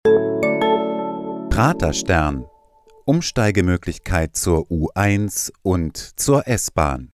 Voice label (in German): stadionansage